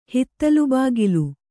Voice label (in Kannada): "ಹಿತ್ತಿಲುಬಾಗಿಲು", kannada